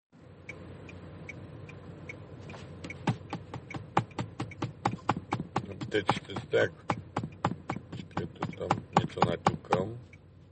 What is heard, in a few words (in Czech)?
hlaseni; rozhlas